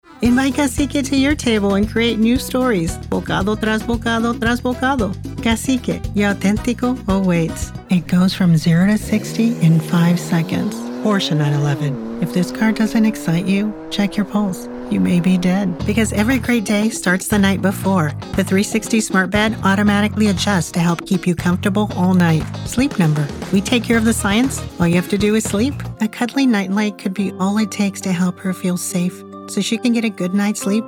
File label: Commercial